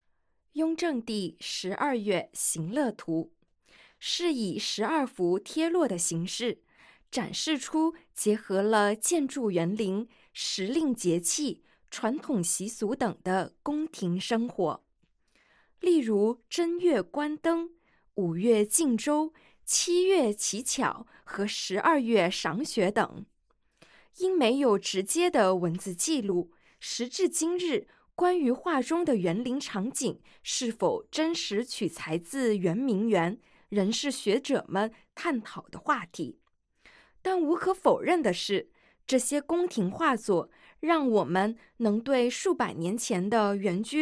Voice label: Mandarin, audio guide; yuan ming yuan